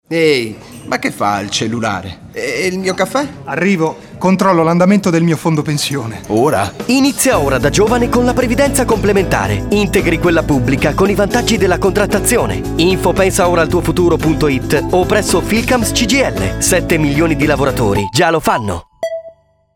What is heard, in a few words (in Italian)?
Barista Radio; Filcams CGIL